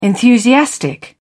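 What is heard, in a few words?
"enthusiastic", English, British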